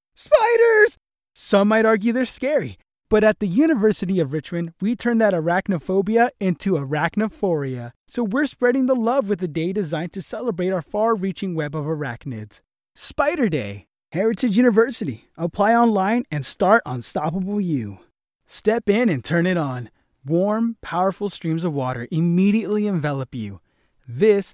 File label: Commercial Sample Reel